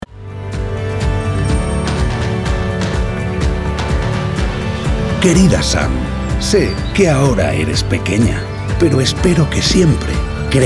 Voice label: Locutor, Role Grandfather; VO; Disneyworld

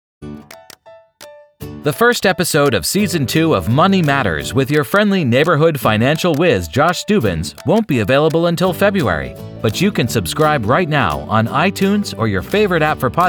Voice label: Podcast Intro Outro, Teaser

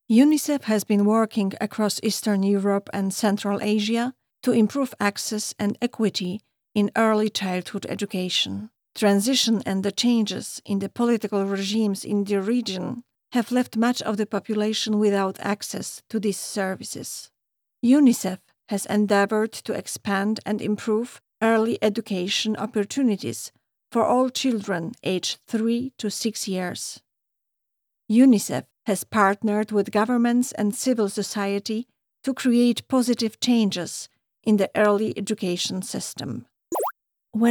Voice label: ENG Narration

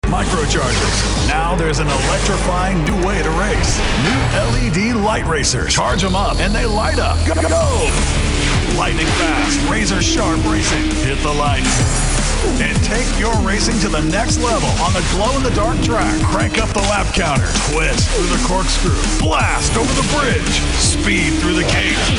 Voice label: Toys Attitude

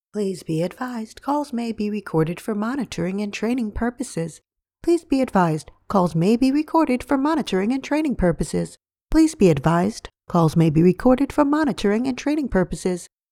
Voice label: phone IVR monitoring

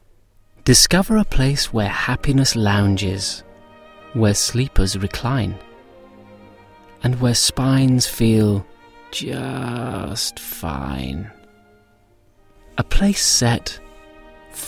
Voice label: laidback

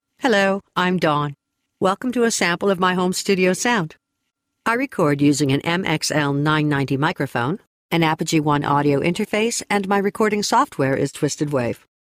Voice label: Home Studio Sound Sample processed

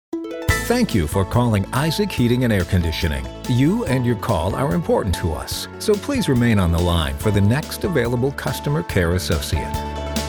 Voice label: Phone

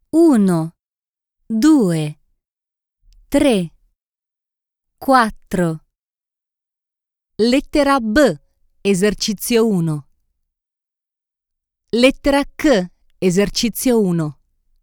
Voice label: e-learning

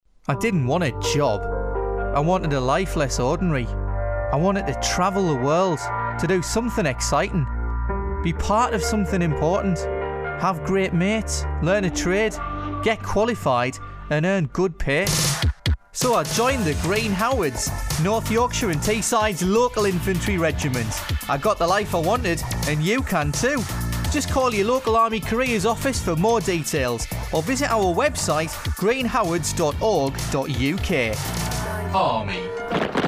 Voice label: Ad; Green Howards

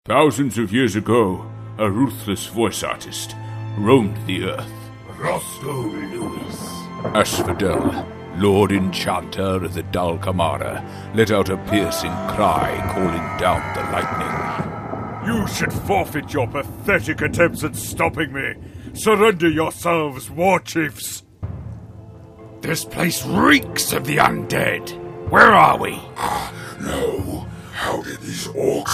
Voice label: BRITISH MALE FANTASY CHARACTERS

